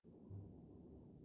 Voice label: "khrokh" kashmiri snore

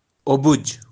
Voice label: "obij", Kashmiri

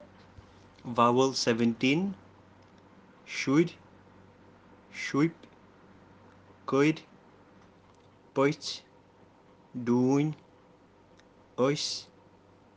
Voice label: vowel kashmiri vowels

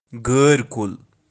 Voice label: "gear kul", kashmiri

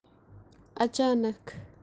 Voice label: "achaanakh" suddenly kashmiri

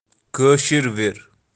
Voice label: "keashir virr", Kashmiri

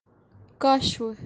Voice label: "qashwu" spoon, kashmiri

